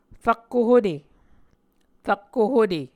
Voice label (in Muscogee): "rakko hute", Muscogee; Onvkv Ohhvyayices; A Dictionary of Creek/Muskogee; SIL International